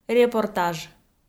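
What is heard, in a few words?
"репортаж", Komi, female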